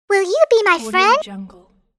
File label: cartoon girl will you be my friend